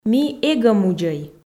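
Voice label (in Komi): ми эгӧ мудзӧй, Komi, female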